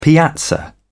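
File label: "piazza", British English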